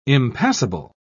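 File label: "impassible", British English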